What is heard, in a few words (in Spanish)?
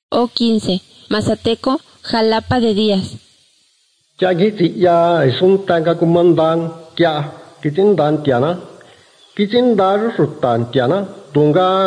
spanish mexico